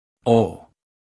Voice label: Phoneme Male; Commonscript; Lynguistykon